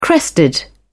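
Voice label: "crested", British English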